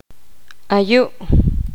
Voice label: poqomam

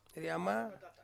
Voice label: "rama", to come, Ansus

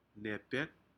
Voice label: "nepek", Aneityum; shellfish

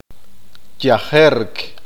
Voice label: "estar enfermo", Q'eqchi'

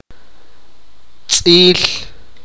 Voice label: sipakapense; dirty

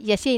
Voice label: "asiei", Wamesa

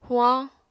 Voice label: "huañ", Apatani

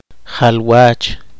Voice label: poqomchi

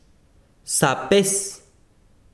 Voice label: besiro dia, chiquitano

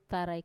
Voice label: "tarai" body, Wamesa

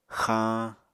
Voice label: "xañ", Apatani

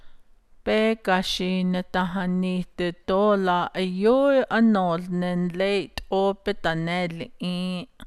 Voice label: navajo; At the cattle sale I saw a beautiful bull that I

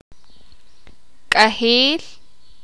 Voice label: "polvo", sakapulteko

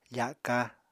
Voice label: "faka", Apatani

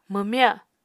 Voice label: "mwmya", Apatani

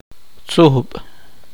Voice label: poqomchi; grupo

